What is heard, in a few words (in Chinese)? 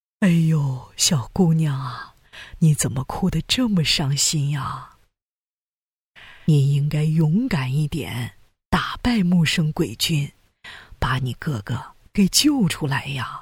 角色扮演, 老奶奶